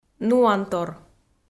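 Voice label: "нуантор", Komi, female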